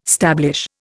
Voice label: "stablish", Indian